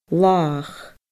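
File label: "làthach", Scottish Gaelic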